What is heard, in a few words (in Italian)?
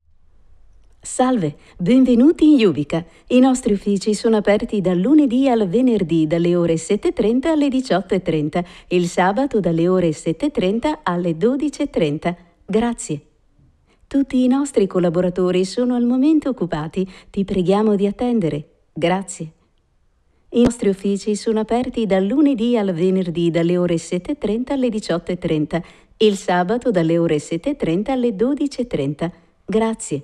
segret. telefonica anche inglese